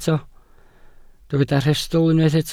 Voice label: "rhestl", Welsh